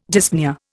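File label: "dyspnoea", Indian English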